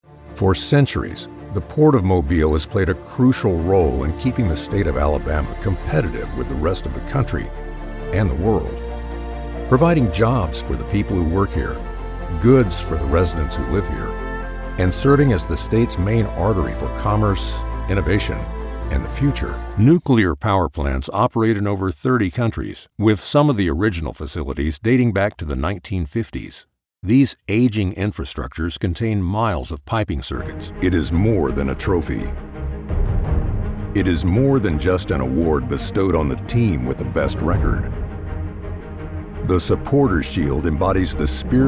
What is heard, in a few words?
Narr